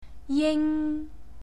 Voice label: "jing", Cantonese